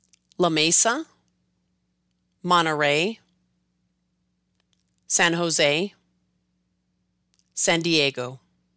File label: La Mesa to San Diego, Spanish words used in English